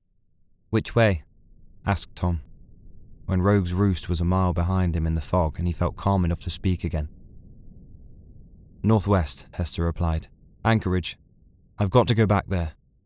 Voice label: Audiobook, nottingham